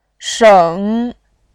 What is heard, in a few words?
"sheng3", voice